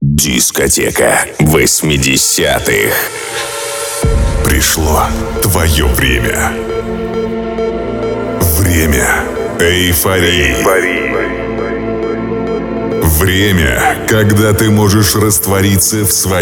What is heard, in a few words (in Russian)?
Мужской голос